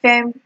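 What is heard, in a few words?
"fem", Nigerian Pidgin, female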